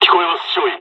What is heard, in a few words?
dialogs japanese; War Thunder